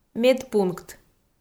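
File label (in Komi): "медпункт", Komi-Zyrian, female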